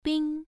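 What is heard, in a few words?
"bing" Mandarin, first tone, pronunciation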